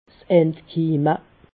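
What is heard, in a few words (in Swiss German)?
"entkimme", Haut-Rhin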